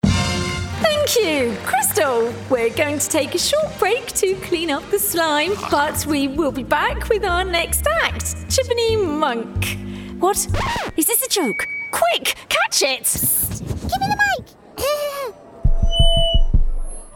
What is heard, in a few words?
Animation